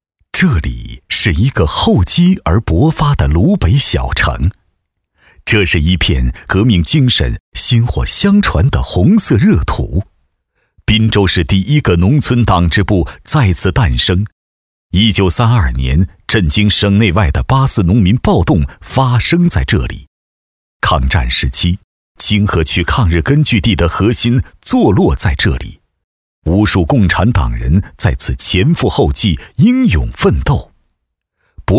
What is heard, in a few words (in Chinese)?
男 纪录片